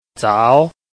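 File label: "zao2", Mandarin Chinese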